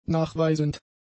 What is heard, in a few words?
"nachweisend", German; adjectives